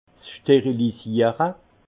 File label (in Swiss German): "sterilisiere", alsace; vin; stérilisation